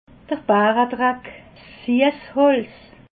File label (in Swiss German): "baredreck", alsace